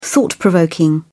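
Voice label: "thought-provoking", British English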